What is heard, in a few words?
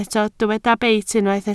"beit", Welsh